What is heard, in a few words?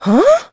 confused; Crypt of the NecroDancer